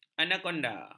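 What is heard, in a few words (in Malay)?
"anakonda", Malay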